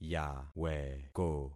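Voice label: "yaweko", concatenated syllables; Affixing experiment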